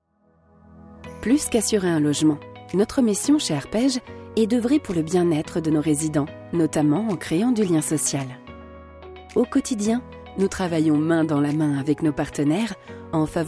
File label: French explainer videos